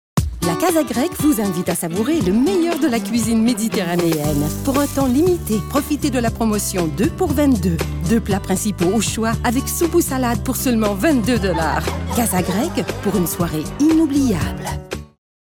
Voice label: Commercial